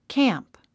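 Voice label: "camp", English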